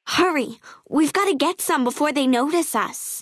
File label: female child take water; FNV